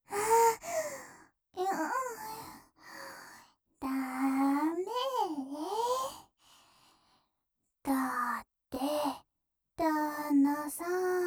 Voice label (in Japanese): ピロトーク・添い寝しながらいたずら, ASMR